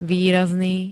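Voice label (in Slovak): "výrazný", Slovak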